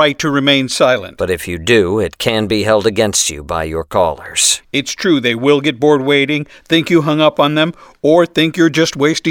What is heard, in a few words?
on hold police; stereo